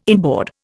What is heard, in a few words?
"inboard", Indian